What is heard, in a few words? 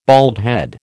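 "baldhead", American English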